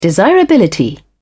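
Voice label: "desirability", British English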